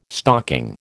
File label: "stocking", American